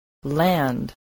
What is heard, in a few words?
"land", English